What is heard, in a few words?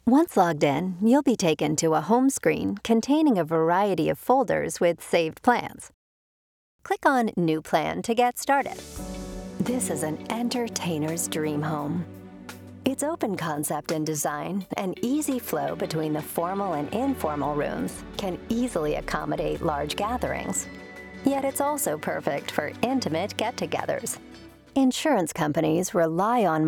Narration E Learning